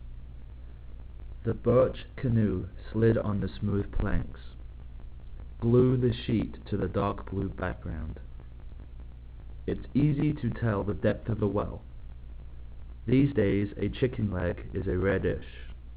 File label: male